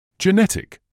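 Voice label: "genetic", British English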